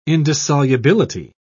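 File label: "indissolubility", British English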